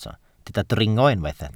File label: "dringo", Welsh